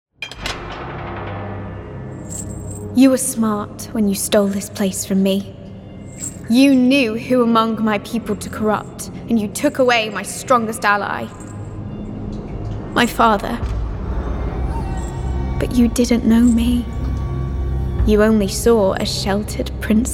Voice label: Games, American, RP (Received Pronunciation), Cool, Young, Characters, Versatile; Video Games Reel